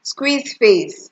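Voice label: "squeeze face", Nigerian Pidgin, female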